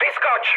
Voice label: bailout, speech cze, mood high; War Thunder

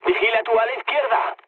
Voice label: left wing, mood low, speech; War Thunder

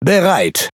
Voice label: Speech Pilots Bomber Ready, DE; World Of Warships

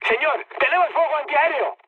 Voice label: damaged aa, mood high, speech; War Thunder

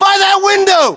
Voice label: US landmark near window, battlechatter, english

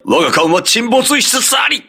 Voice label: hull breach, voice message, crew ships japanese

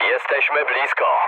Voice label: near waypoint mood low, speech pol; War Thunder

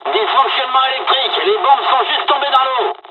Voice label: dialog, dialogs french; War Thunder; midway

